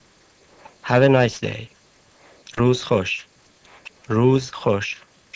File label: Persian Nice Day